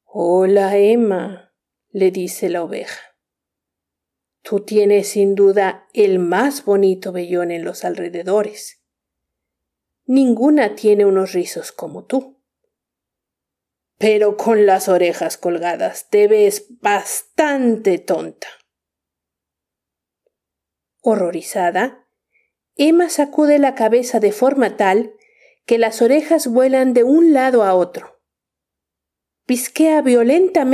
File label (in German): spanisch